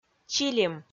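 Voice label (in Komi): "чилим", Komi, female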